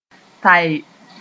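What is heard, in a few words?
"tae", Mooré; pull several things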